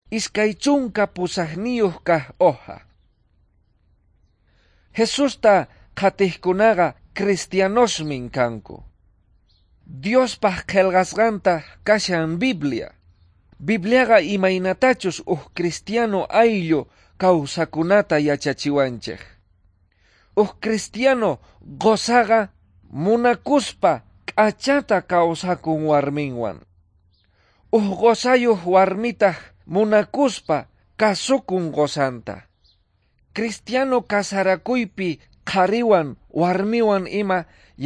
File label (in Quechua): quechua bolivian cochabamba; good news